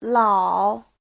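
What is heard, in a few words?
"lǎo", Mandarin Chinese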